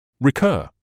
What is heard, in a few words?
"recur", English